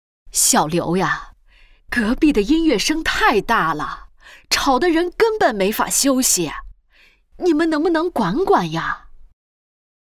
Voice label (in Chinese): 角色老太太奶奶音